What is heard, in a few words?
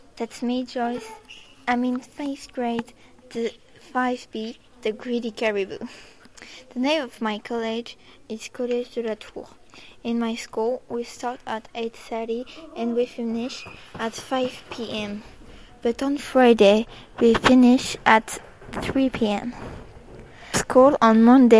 english classroom